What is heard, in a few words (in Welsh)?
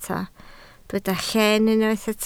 "lleen" Welsh